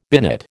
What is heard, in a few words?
"binate", American